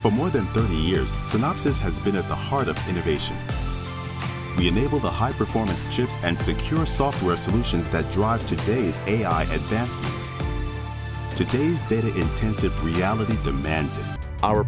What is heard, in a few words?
english american corporate videos; a professional English voice actor